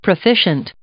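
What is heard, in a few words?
"proficient", English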